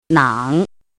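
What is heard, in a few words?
"nǎng", Chinese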